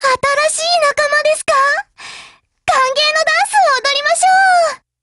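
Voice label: build over, Japanese; GF Alarm; HK45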